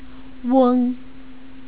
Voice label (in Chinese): "weng", Chinese pronunciation